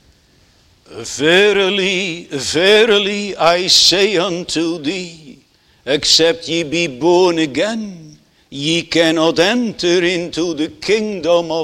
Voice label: Sermon Continued; Swearing an Oath; Netherlands Reformed Congregation, St. Catharines, ON